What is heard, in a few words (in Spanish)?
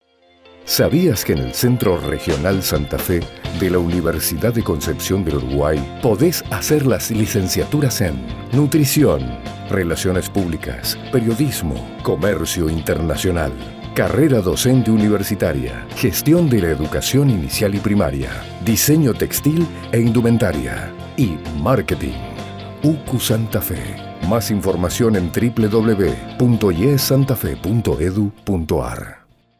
Spot Radio; UCU